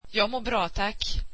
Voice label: swedish Im good thank you